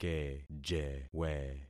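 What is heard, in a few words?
"kejewe", concatenated syllables; Affixing experiment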